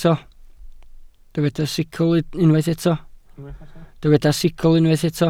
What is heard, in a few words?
"sicl", welsh